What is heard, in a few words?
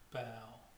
"bow", vowels